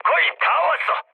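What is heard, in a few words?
Korean near waypoint mood high, speech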